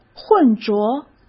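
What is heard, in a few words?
"混浊", Mandarin Chinese; chinese flashcards; hsk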